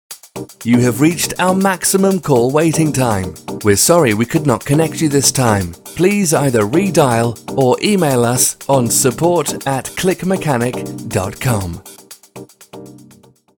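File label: uk, male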